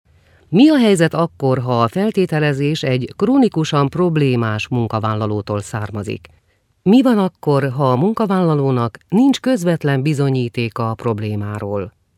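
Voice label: female Hungarian IVR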